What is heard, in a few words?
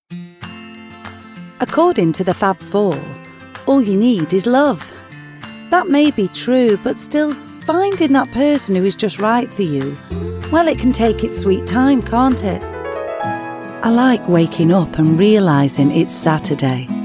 Commercials